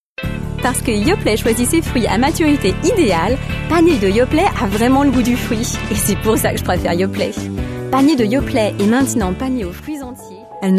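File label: French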